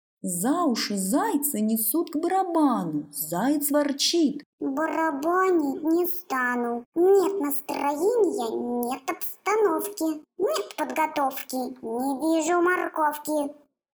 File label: cartoon voice; cartoon voice in adobe audition